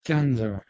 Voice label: "gandra", Anglo Saxon